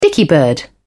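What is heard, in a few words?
"dicky-bird", British English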